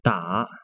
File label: "打", Chinese